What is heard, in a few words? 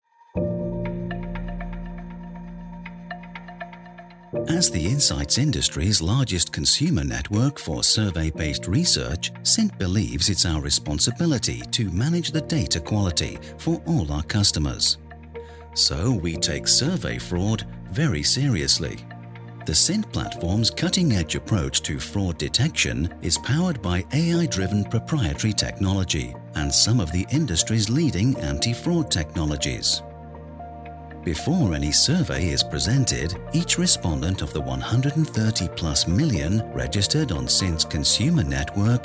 British Voiceover Explainer Video; CINT Fraud Detection